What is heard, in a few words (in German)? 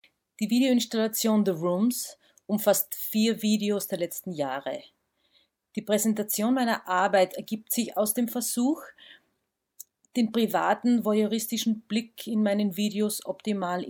kommentar; Audiokommentare